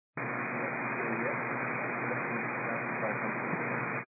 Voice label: 122 725MHz, scanner radio